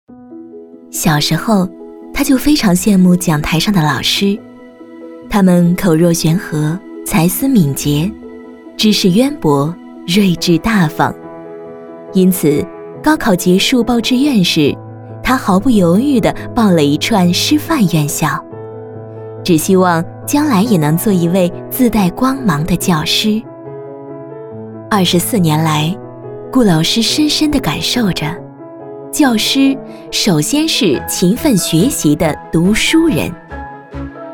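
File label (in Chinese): 国语 女声 专题片【自然大方人物介绍】 大气浑厚磁性 沉稳 积极向上 亲切甜美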